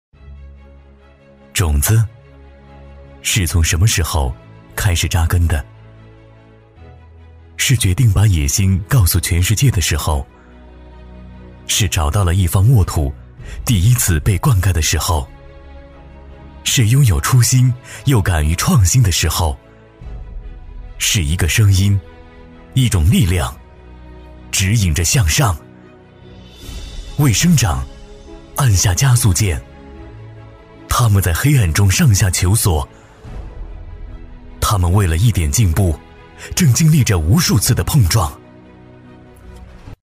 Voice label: 男 走心宣传 正能量 励志; 农商行; 大气沉稳; 科技感

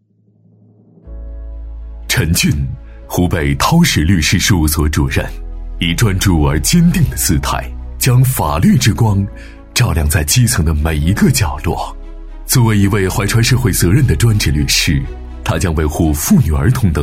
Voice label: 男 人物宣传片 大气抒情; 磁性稳重; 高端大气

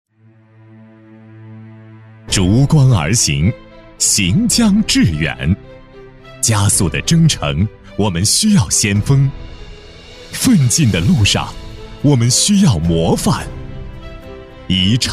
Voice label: 男 宣传片 磅礴大气; 大气浑厚; 男117-高端大气

